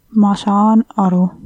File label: understand, estonian